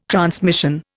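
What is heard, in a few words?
"transmission", Indian English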